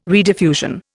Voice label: "rediffusion", Indian